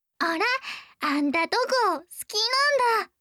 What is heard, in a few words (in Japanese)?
好きです 宮城; 雪歩